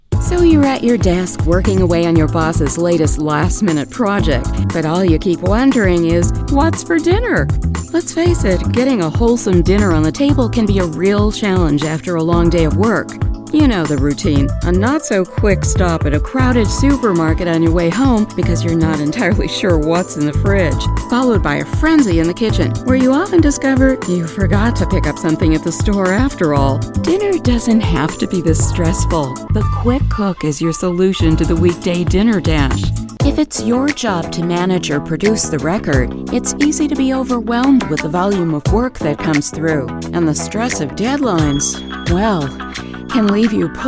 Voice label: explainer